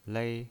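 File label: "lei", pinyin, Mandarin Chinese, first tone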